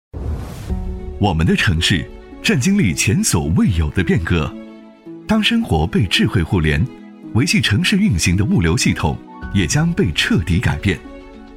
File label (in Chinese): 男 汽车企业 磁性科技, 时尚科技 质感磁性; 江铃